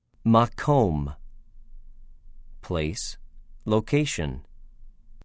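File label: "מָקוֹם", Hebrew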